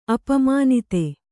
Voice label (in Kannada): "ಅಪಮಾನಿತೆ", Kannada